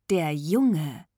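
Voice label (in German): "der Junge", German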